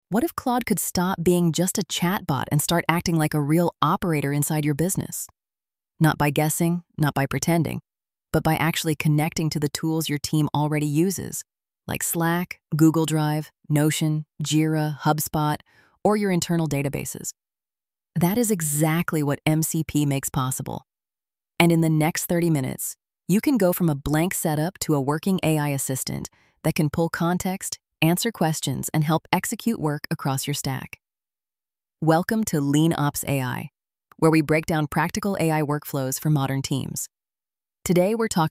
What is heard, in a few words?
voiceover